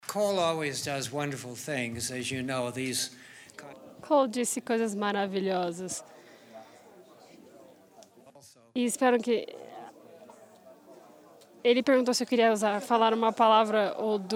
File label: Portuguese; iclrs symposium 2009